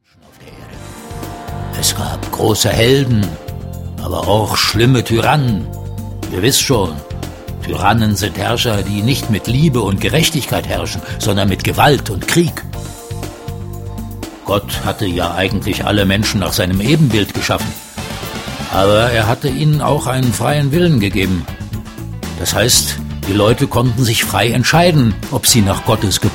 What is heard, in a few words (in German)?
Intro- Erzaehler